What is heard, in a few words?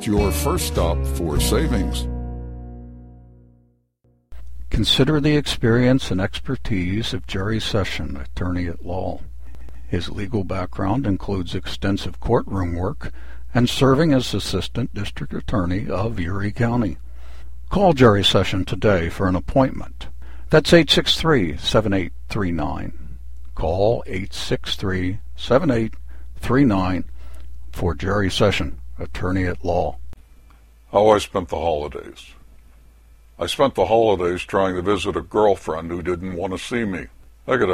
Voice Over; 2-minute demo